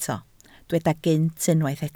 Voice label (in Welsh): "gynt", Welsh